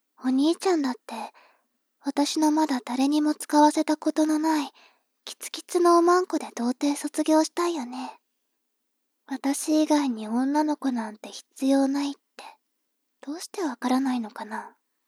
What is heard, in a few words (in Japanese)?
妹 台詞